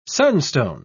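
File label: "sunstone", English, uk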